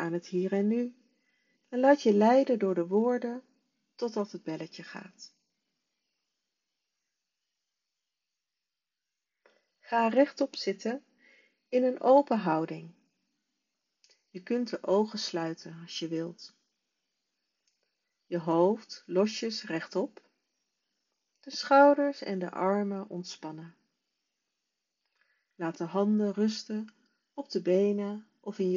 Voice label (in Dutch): Meditatie 10 minuten; mbsr training oktober 2025